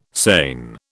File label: "seine", British